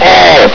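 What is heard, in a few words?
"all!", male; hl2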